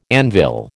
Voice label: "anvil", American